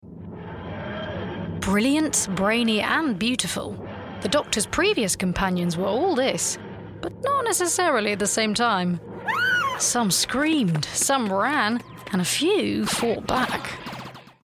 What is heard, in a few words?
Narrative